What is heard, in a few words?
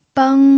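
"beng", pinyin, first tone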